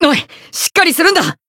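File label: Voice heal, Japanese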